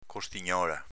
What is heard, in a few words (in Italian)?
"costignola", dialetto veneto; costignòla s.f. costoletta